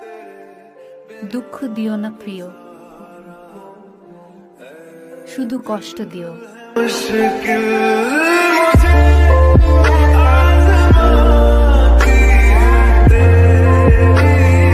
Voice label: Sad Girl Voice Breakup Ringtone

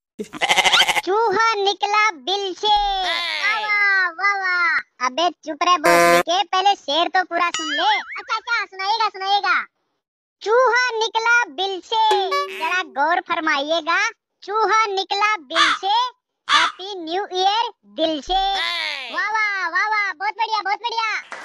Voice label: Happy New Year Funny Wishes Ringtone; Happy New Year 2019

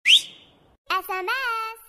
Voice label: Child Voice SMS Ringtone

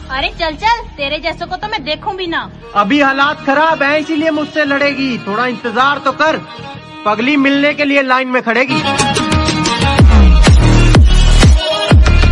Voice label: Girl X Boy Attitude Shayari Ringtone

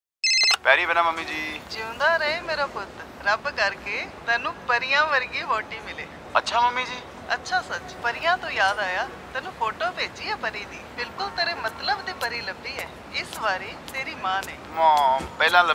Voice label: Mother Call Punjabi Dailog Ringtone

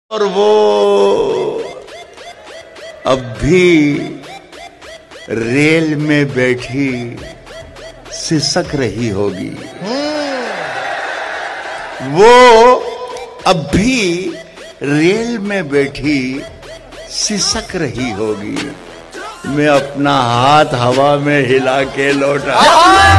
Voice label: Shayari Ringtone

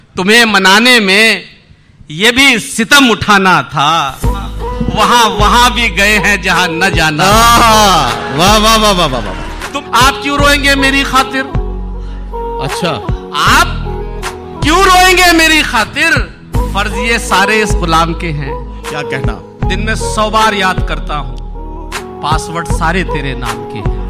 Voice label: Shayari Ringtone

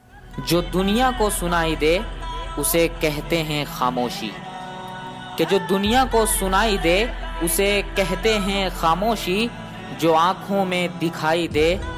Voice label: Independence Day Shayari Ringtone

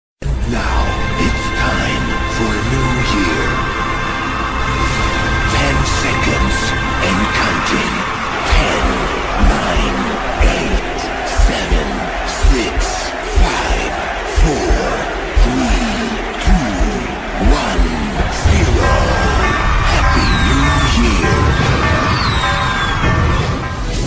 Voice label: Happy New Year Countdown Ringtone

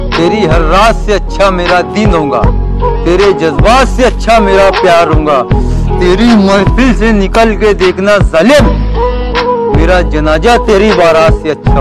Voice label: Shayari Ringtone

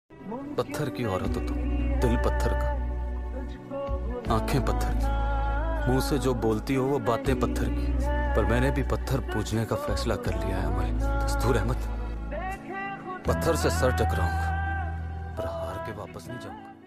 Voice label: Dialogue Ringtone

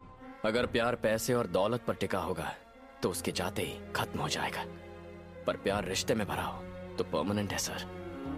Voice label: Dialogue Ringtone

